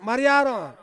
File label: "mariaron", Ansus